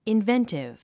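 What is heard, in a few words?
"inventive", English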